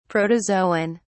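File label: "protozoon", British English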